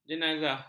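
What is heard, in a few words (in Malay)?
"jenazah", Malay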